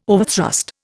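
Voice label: "overthrust", Indian English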